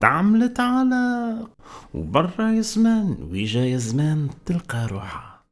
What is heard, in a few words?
POET, POET VOICE, Arabian Traditions; USB Soundscan vol.43; AKAI